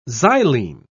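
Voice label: "xylene", British English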